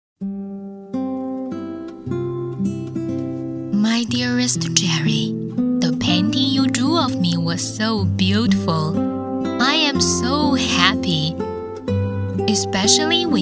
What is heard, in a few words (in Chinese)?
英文走心成熟温柔